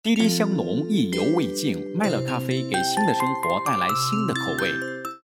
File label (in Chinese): 咖啡广告